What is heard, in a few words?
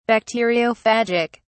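"bacteriophagic", British English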